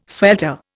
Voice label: "swelter", Indian

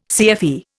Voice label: "CFE", Indian

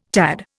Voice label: "tad", Indian

